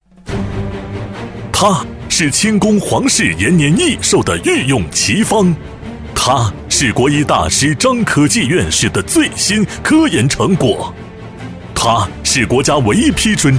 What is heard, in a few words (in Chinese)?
男-广告配音 胶囊-激情高昂; 御锋老方清宫长春胶囊; 男228-电视台声; 大气激昂